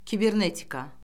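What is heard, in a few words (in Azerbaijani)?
"kibernetika", Azerbaijani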